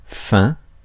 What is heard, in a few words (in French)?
"faim", Mots francais